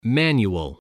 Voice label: "manual", spelling voice